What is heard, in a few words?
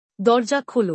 Open the door. Bangla; Simple Commands